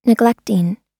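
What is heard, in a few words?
"neglecting", American English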